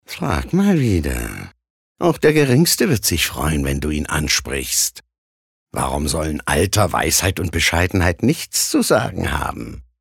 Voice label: Werbung, Fies

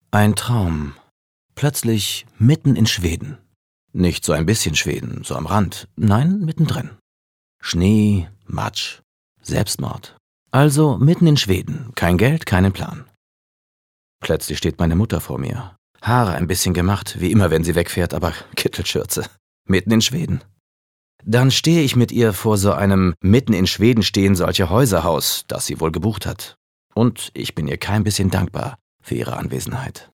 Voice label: Schauspiel Freundlich

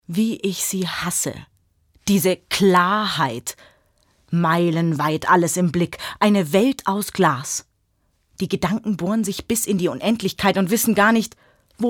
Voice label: Schauspiel Energisch

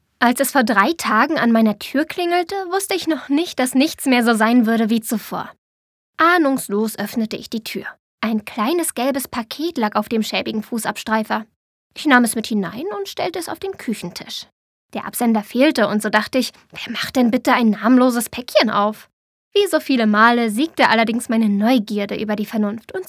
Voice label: Unverhofft Frech

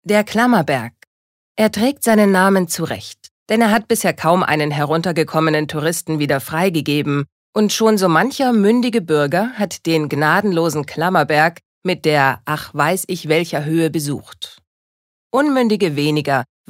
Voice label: Kommentar Neutral

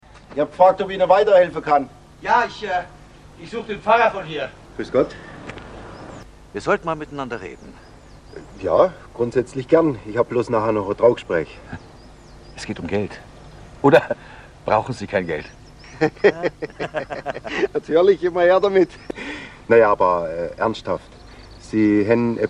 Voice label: Dialekt Schwaebisch

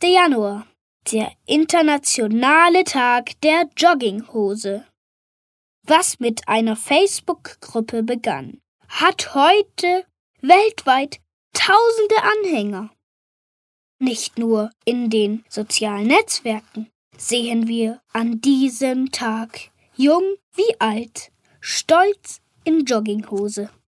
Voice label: Feiertag Neutral

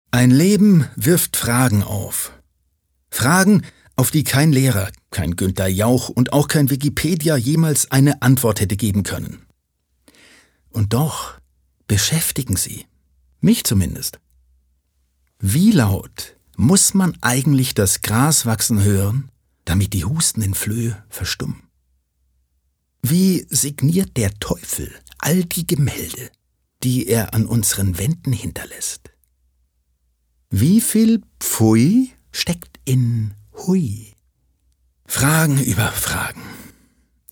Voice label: Schauspiel Neutral

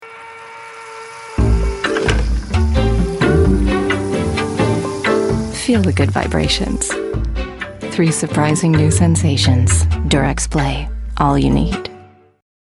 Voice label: Eng US Fremdtake Freundlich

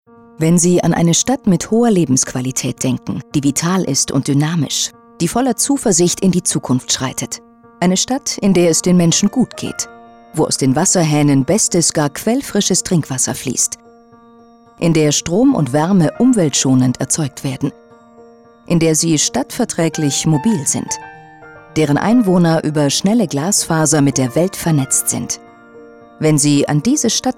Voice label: Neutral; Fremdtake

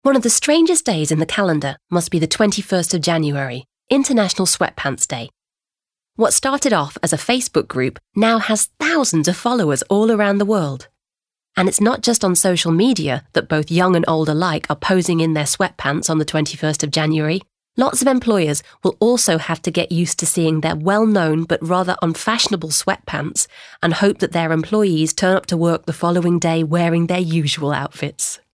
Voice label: Freundlich; Sweatpants

